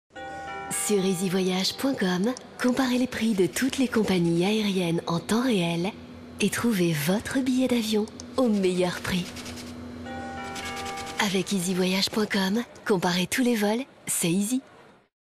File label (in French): promo douce; Easy Voyage